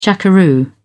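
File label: "jackaroo", British English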